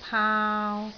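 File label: "paau3", Cantonese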